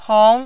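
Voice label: "hong", second tone, Mandarin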